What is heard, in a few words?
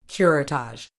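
"curettage", British English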